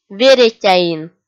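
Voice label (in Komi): "веретяин", Komi, female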